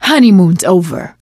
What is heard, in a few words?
wedding witch dead, survivor voice; Left 4 Dead 2; Source Engine; video games; producer